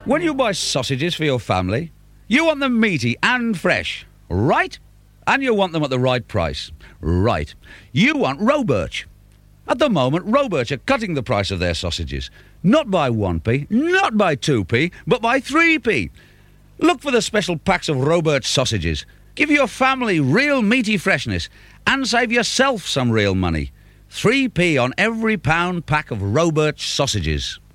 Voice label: Radio Advert; Radio Hallam; Robirch Saussages